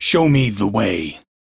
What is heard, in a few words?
Crew Follow, Male; Warframe; Railjack Crew; Arbiters Hexis